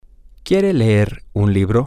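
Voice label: does She Want To Read A Book, spanish, male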